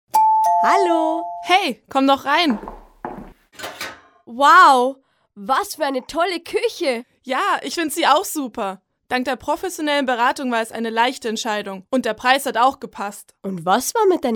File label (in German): Werbung; Hornberger